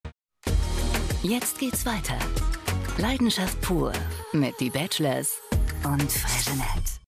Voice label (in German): tv-spot; freixenet 2025